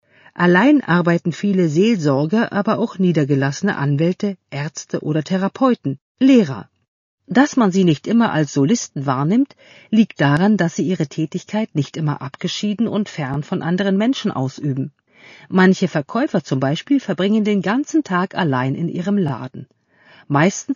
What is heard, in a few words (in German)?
Hoerbuch Hoerbeispiel; Kollege ICH Die Kunst allein zu arbeiten